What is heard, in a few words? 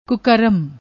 "kukaram", Punjabi; ਕੁਕਰਮ